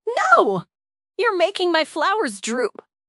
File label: upset; fertig classic games